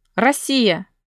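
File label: "Russia", Russian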